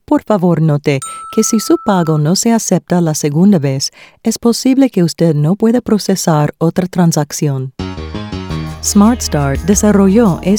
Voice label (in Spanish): spanish